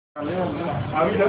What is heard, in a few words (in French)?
Message vocal